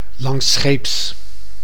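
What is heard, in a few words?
"langsscheeps", Dutch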